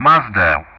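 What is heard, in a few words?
"Mazda", English; Ka-50; Callsign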